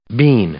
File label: "bean", English; noun; Ingles; i-ɪ